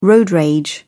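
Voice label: "road rage", British English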